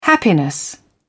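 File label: "happiness", British English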